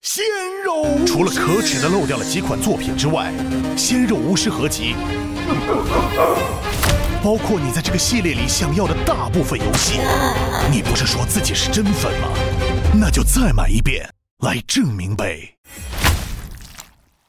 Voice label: Mandarin Ad; 双点校园; 电台广告